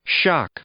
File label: "shock", English; noun